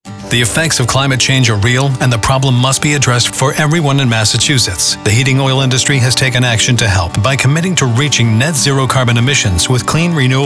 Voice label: Radio; MEMA; NORA; bioheat fuel